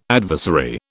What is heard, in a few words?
"adversary", British